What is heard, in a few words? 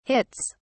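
"hits", British English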